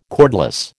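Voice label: "cordless", American English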